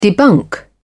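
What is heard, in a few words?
"debunk", English, British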